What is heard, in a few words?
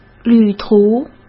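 "旅途", Mandarin Chinese; hsk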